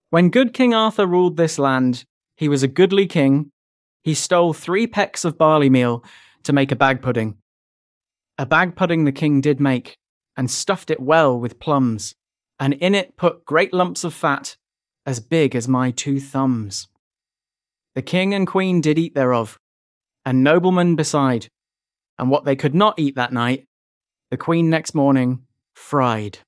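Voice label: When Good King Arthur Ruled This Land, male British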